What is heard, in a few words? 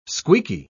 "squeaky", pronounce